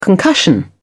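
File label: "concussion", British English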